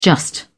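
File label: "just", British English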